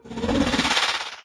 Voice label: idle loud; smite; kukulkan